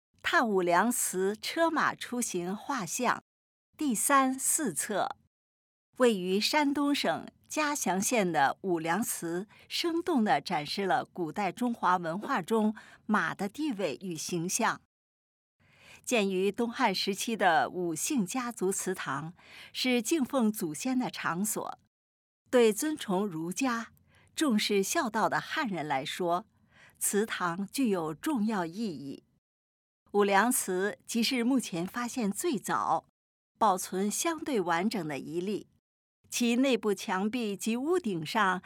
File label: Putonghua